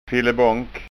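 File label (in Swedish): "fillebonk", framtidsskånska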